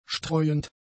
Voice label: "streuend", German; adjectives